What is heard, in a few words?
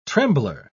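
"trembler", British English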